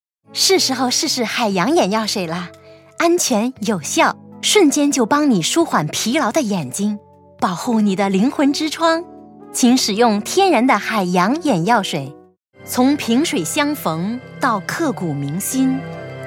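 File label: Mandarin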